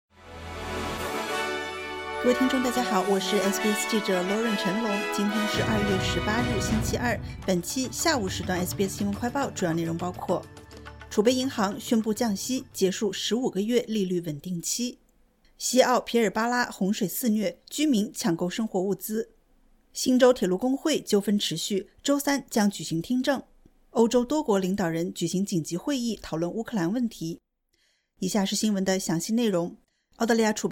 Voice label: mandarin news flash; 澳储行宣布降息25个基点至4.10%; SBS Chinese; SBS新闻快报